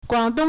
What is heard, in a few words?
"guang3dong1", Chinese